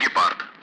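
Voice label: Speech, Russian; Allied Flight; AirDefence; Gepard; DCS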